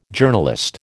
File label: "Journalist", American